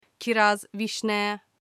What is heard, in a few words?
cherry in turkish